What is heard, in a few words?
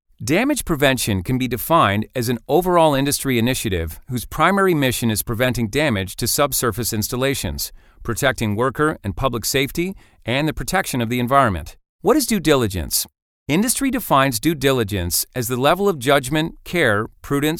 E-Learning Course